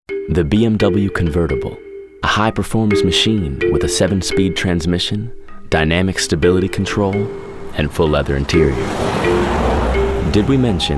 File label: Spanish